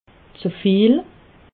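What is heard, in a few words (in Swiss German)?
"zeviel", Alsatian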